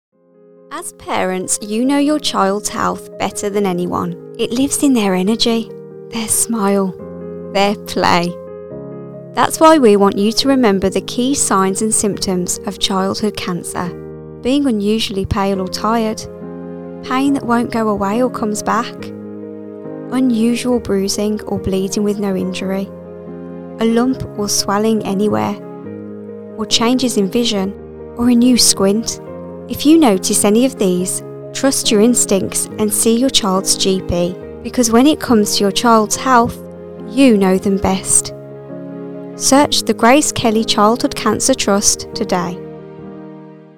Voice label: Radio Ad

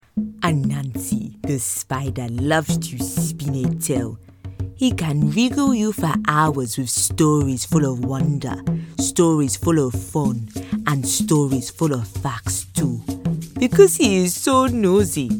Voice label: Audio Book, Neutral, Cool, Friendly, Relatable

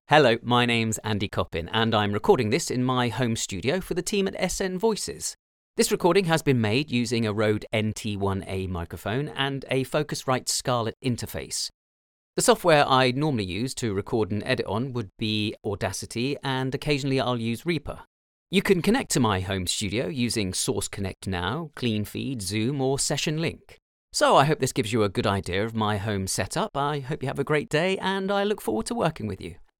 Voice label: Studio Sample

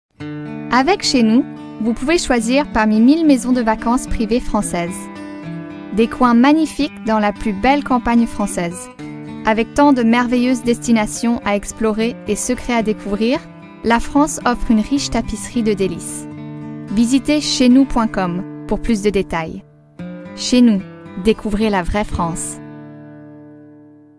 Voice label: French voice

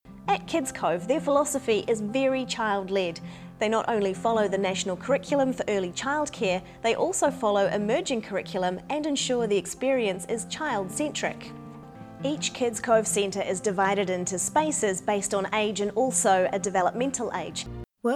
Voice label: Corporate

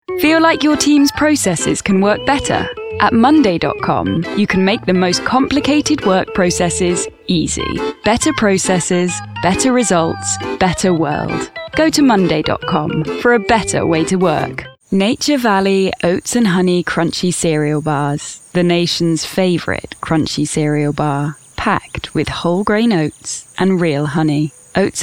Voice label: English Commercial Montage

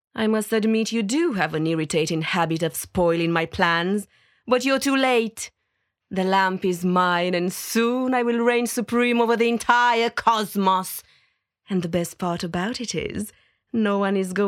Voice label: CHARACTER

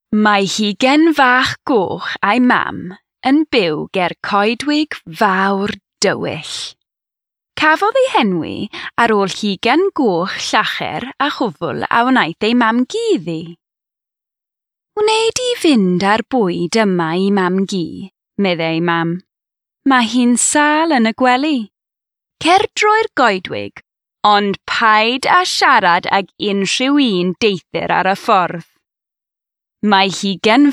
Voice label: Welsh audiobook

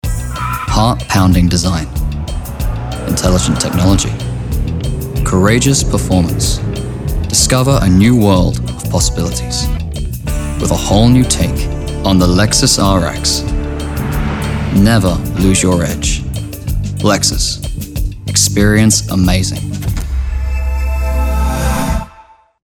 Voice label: Commercial; Lexus

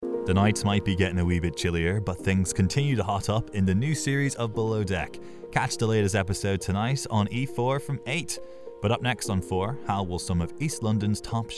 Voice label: Continuity

